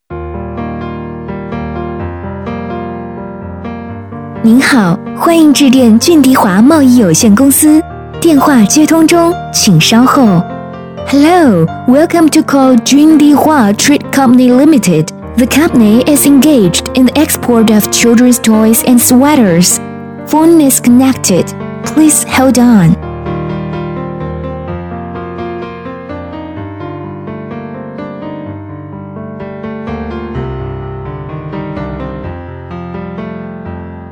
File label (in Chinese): 彩铃 女 中英文; 贸易有限公司